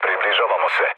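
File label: Serbian near waypoint mood low; speech; War Thunder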